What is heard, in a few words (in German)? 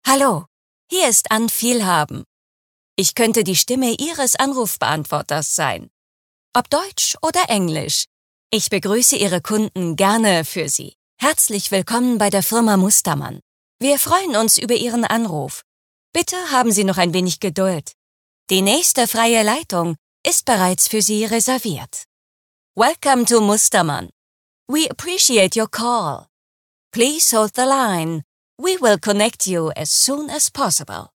Telefonansagen; weibliche Sprecher